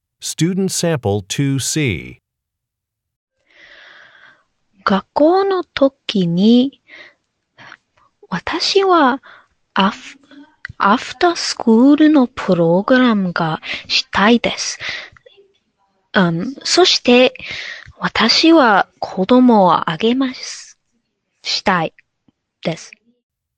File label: japanese conversation sample